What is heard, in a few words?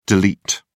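"del", British English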